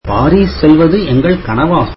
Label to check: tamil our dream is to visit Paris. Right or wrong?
Right